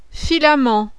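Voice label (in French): "FILAMENT", French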